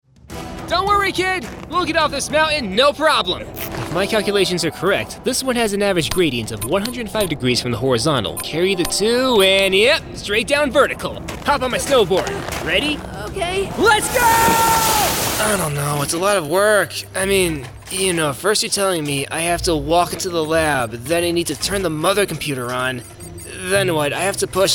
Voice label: CHARACTER